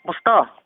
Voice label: hello, Tagalog

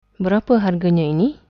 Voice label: how much, malay

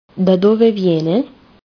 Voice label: where from, italian

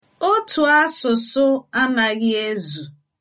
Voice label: one language, igbo

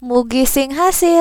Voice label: good luck, sundanese

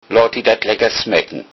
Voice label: bon appetit, lowsaxon

